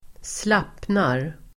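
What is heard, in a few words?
"slappnar", Swedish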